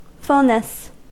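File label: "fulness", American English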